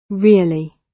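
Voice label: "really", British English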